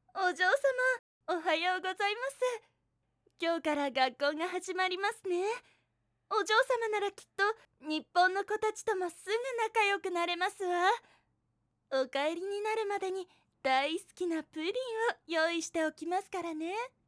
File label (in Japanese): 帰国子女のメイドさん